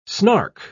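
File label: "snark", British English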